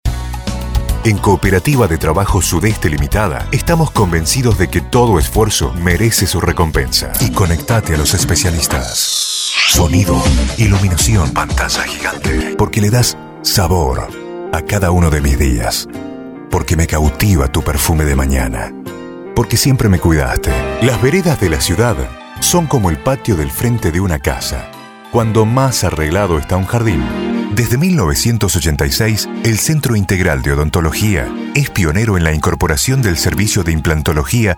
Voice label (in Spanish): locucion